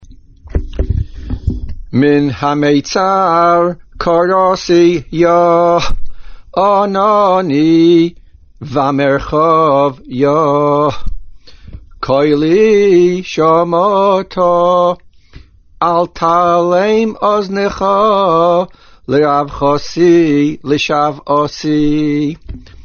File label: Pesukim Recited Before Tekias Shofar